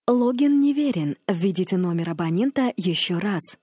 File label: vm incorrect mailbox